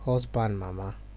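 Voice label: "husband mama", Nigerian Pidgin, male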